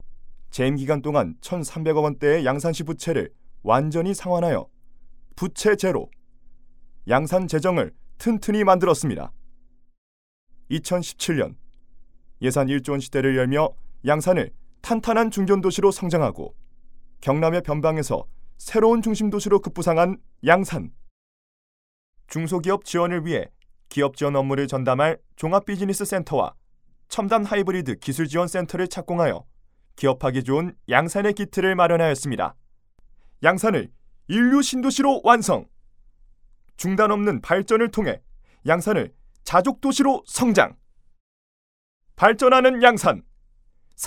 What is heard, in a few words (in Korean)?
홍보 멘트 녹음